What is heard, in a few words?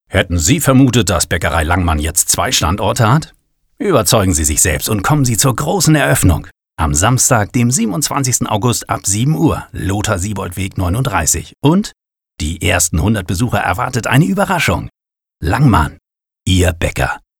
radiospot deutsch; sprecher